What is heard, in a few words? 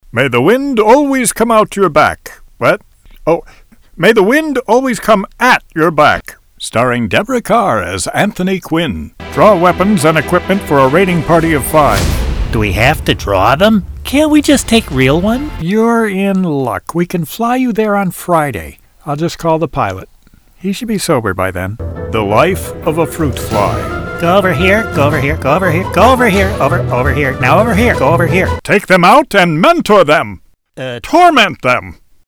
Humor Comedy